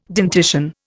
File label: "dentition", Indian